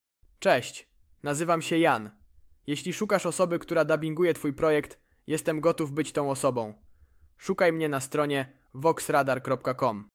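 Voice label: bulgarian voiceover artist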